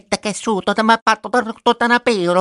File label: gibberish